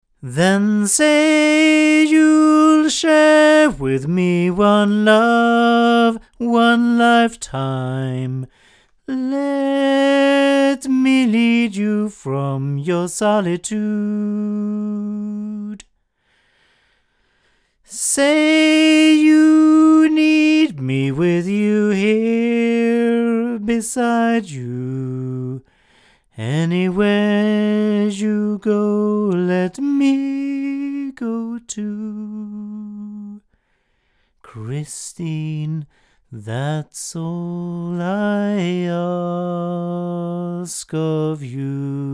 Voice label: ADK A51TL cardioid male, microphones

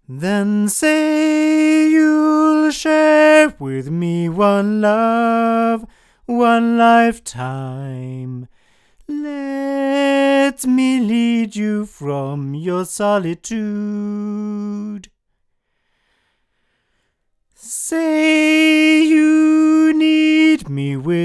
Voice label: cardioid male; studio projects

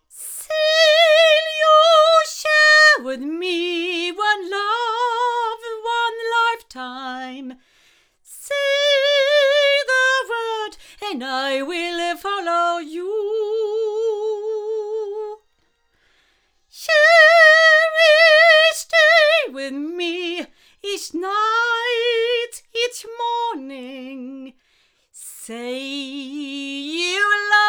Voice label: Blue Reactor 8 female

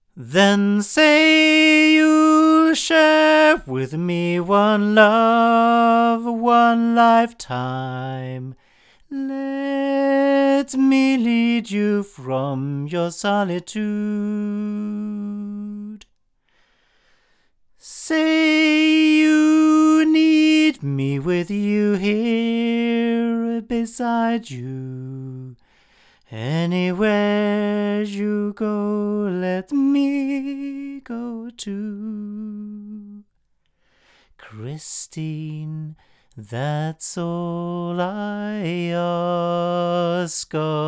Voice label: MXL 1006BP male

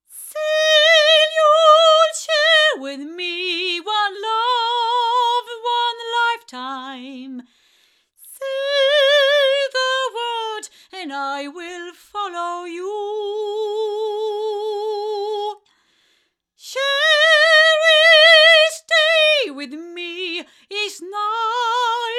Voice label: Golden Age D1 female

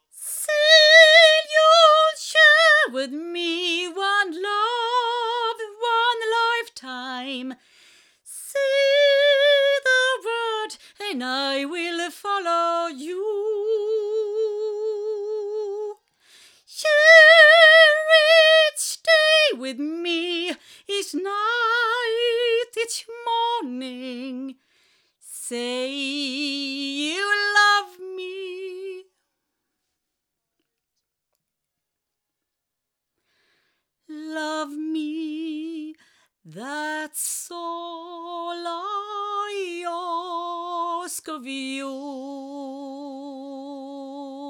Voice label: Shure Beta58A FAKE female

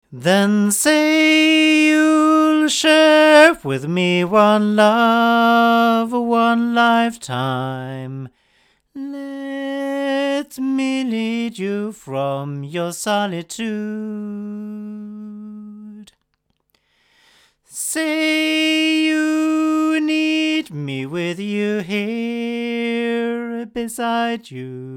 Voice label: Oktava MKL-5000 cardioid male

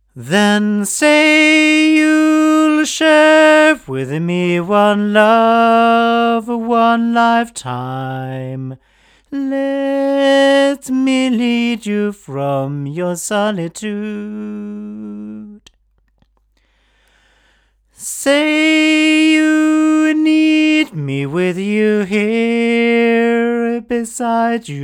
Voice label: BeyerDynamic MCE90 male, microphones

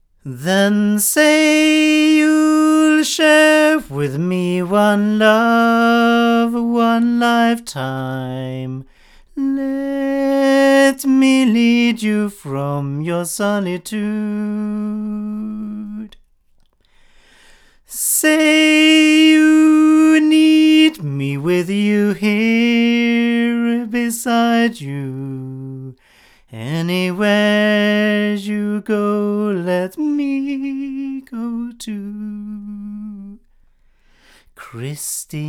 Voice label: Vienna male; ADK

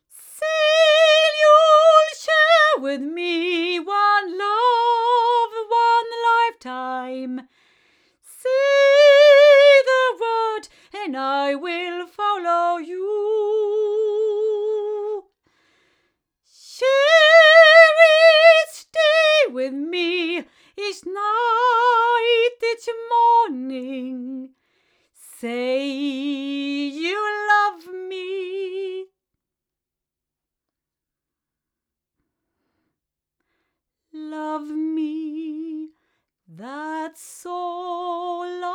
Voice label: front female; CT NakedEye; crowley tripp

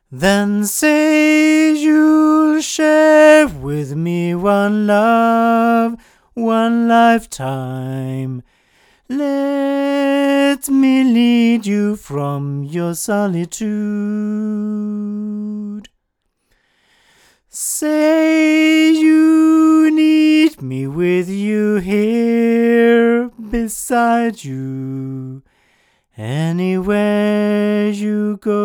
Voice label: cardioid male; studio projects

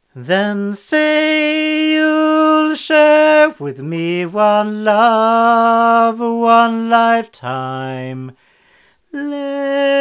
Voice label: ShinyBox 46MX male, microphones

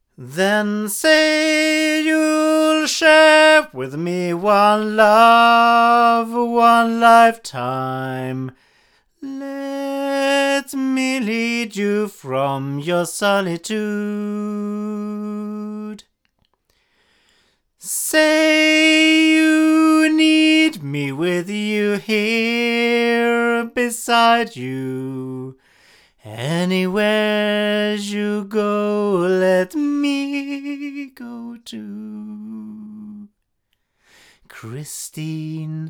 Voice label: Golden Age TC1 8 male

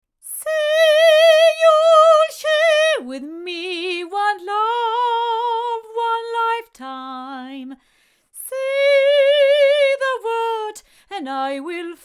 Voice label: Nevaton MC48 female

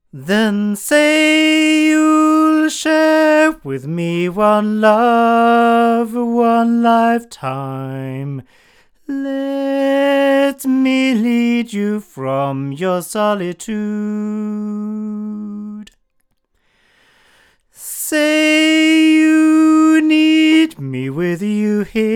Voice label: Violet Dolly male, microphones

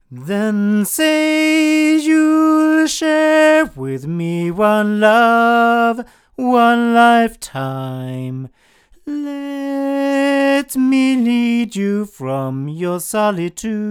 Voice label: cardioid male; studio projects

